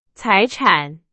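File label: Chinese; hsk4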